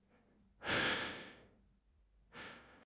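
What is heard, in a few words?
"jing1 chang2", Chinese; HSK; HSK3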